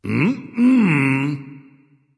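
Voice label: disagree, dragon; fafnir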